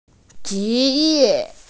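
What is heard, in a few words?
"occupation", Mandarin; zhi2ye4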